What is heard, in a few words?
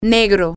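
black, Spanish, female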